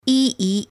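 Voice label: "ee", English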